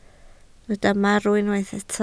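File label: "marw" Welsh, spoken word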